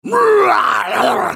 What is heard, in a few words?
spellcast effort, en US; Trundle